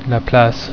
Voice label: "Place", french

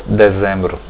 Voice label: december, portuguese

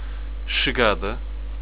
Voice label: "Chegada", Portuguese; Arrival

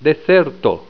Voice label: "deserto", Esperanto

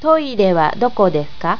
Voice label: Toilet, japanese

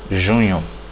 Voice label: june, portuguese

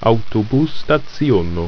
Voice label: "Autobus-staciono", Ido

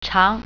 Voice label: long, mandarin

